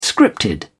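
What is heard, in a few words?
"scripted", British English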